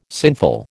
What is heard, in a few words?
"sinful", American